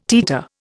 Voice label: "teeter", Indian

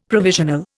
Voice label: "provisional", Indian; Dictionary

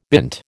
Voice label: "bint", American

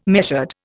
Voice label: "measured", Indian

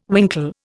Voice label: "winkle", Indian

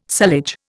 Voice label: "sullage", Indian

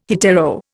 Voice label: "hetero", Indian

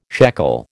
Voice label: "shekel", American

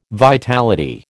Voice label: "vitality", American

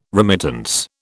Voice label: "remittance", British

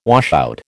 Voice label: "washout", American

